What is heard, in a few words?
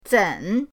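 "zen", Mandarin pinyin, third tone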